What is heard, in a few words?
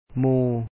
"ໝູ່", Lao; mu#u; friends; "moo"